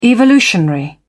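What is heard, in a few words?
"evolutionary", British English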